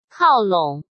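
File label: "靠拢", Mandarin Chinese; hsk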